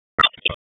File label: Police North District Tac 1, p25; Raleigh; wake